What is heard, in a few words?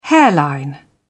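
"hairline", British English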